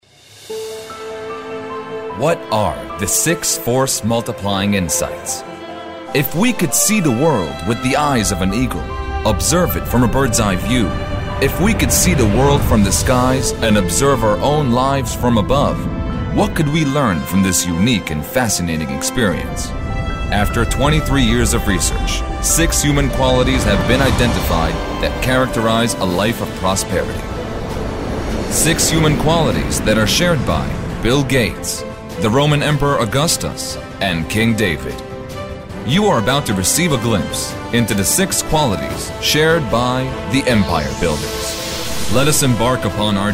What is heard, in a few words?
english movie trailers